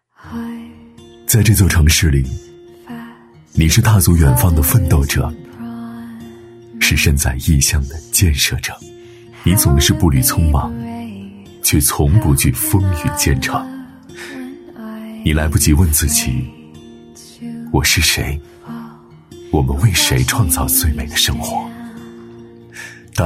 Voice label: 男 磁性走心 人物嘉奖; 温暖磁性 质感磁性

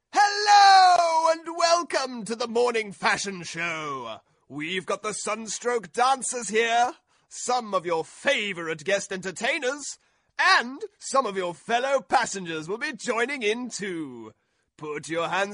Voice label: 英式男声 角色

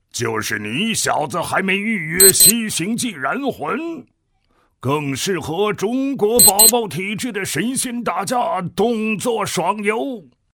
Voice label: 男 角色模仿; 大气稳重; 幽默搞怪

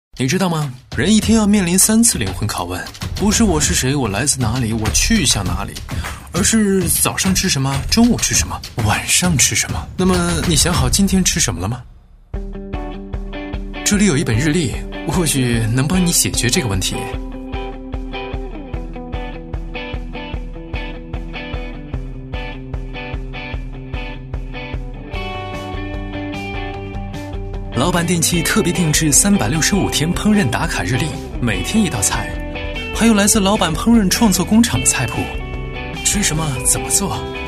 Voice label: 男 品质广告 年轻随意, 时尚质感 轻松活泼; 老板电器-一本烹饪打卡日历

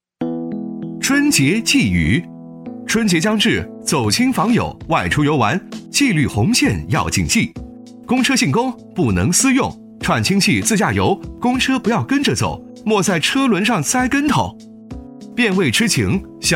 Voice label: 男 公益广告 轻松 明快, 时尚科技 年轻时尚; 利川 春节纪语

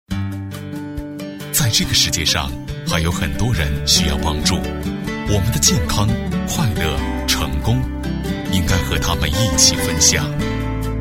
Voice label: 男 文艺片 温暖质感; 帮助他人; 高端大气 质感磁性

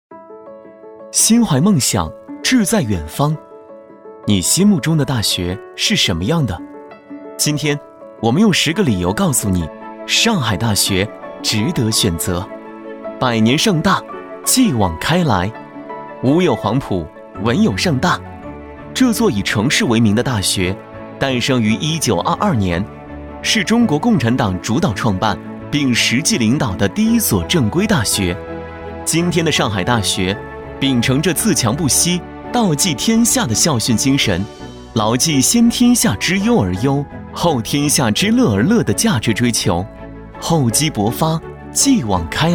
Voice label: 男 宣传; 上海大学; 质感磁性; 男C13-全能型

